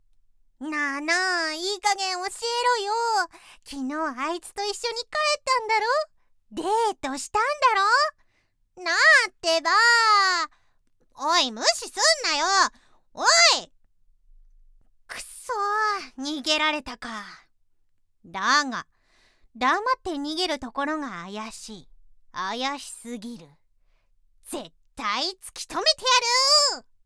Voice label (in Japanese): 人外 いやしい